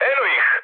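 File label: Serbian enemy detected mood med; War Thunder; speech srb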